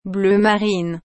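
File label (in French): "bleu marine", French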